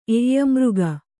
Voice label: "ಎಯ್ಯಮೃಗ", Kannada